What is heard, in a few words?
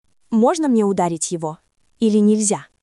Russian, russian phrases insults; ロシア語のネガティブなフレーズ